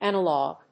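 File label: "analog", English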